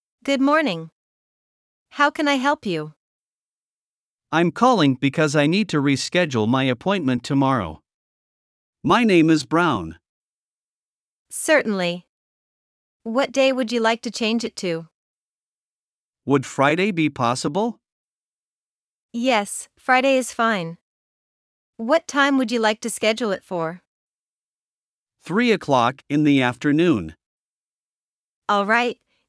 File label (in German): Dialog; Formelle Termine absagen